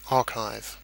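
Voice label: "archive", British English; pronunciations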